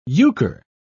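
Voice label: "euchre", British English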